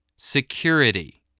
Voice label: "security", english spelling

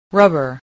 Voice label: "rubber", English spelling

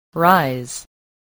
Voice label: "rise", English spelling

